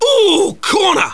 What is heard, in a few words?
uuuh corner, English commentary; swos